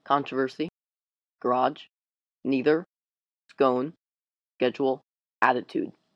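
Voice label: phoenix arizona usa male, accent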